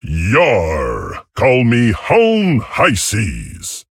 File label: Male Tauren, PIRATE DAY